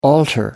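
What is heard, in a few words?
"alter", English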